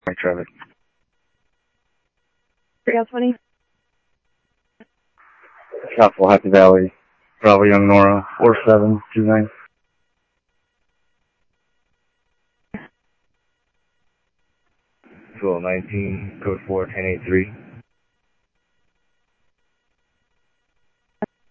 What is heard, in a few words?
Police, scanner audio; SCTSDLE PD - PD2 ScottsdalePolice; ThompsonPeakHigh